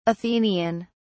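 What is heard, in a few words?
"athenian", English, uk British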